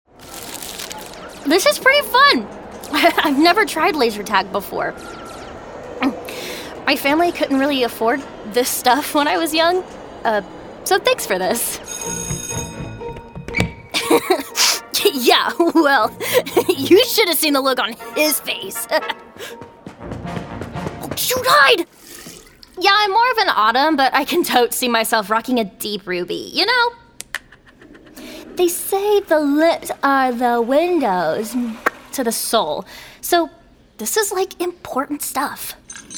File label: Character